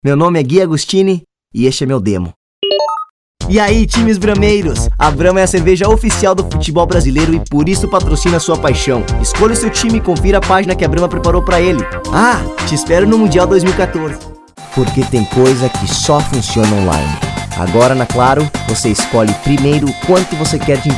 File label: Male Commercial Portuguese